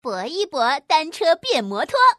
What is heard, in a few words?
woman; guizhou